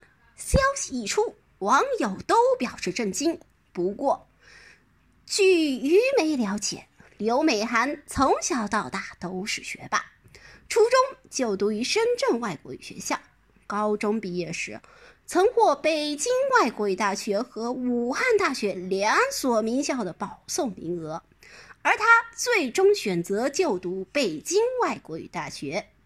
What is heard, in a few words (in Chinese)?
诙谐播报